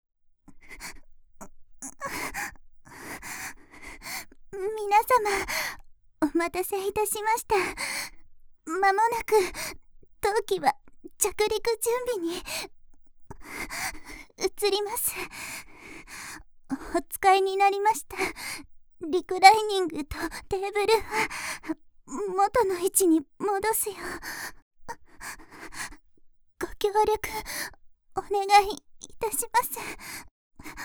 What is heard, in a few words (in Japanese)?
妖しいアナウンス; しっとりお嬢様CA